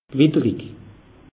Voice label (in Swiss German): "gewitterig", alsace; meteo